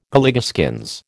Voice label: "Galligaskins", American